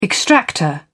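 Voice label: "extractor", British English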